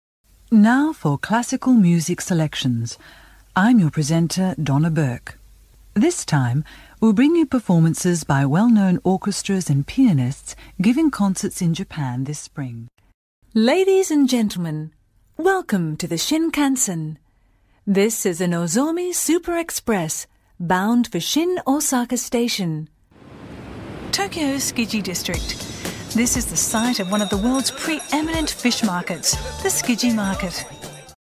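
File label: AUS, Australian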